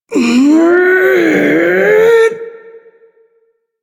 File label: Ability Lift, Barks; Warframe; Corpus Lich; Persona C